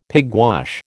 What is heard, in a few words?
"pigwash", American